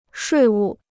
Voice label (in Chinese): "税务", Chinese